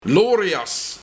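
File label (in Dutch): "Lorejas", Luik; 1. Slungel; 2. Onbeholpen persoon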